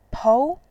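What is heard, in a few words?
"pou", Mandarin, tone 2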